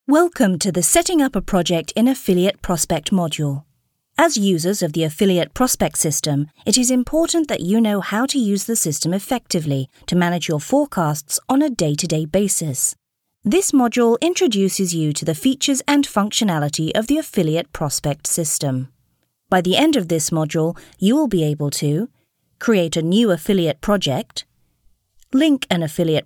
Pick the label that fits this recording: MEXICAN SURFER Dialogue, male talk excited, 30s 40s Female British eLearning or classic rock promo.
30s 40s Female British eLearning